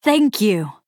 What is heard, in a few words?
Female Night Elf Thanks